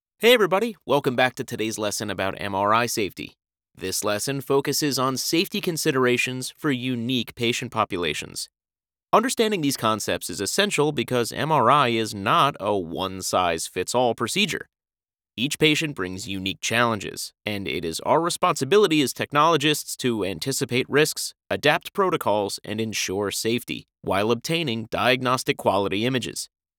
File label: Elearning